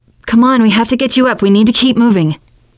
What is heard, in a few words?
revive friend, teen girl; player; survivor; voice